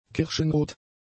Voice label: "kirschenrot", German; adjectives